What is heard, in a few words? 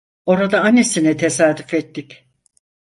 "ettik", turkish; mozilla voice